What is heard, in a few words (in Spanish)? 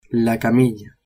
"camilla" spanish